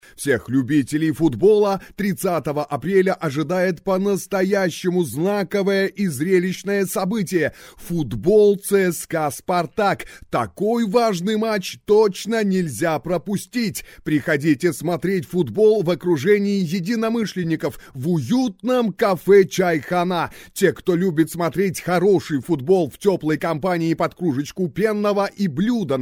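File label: commentator voice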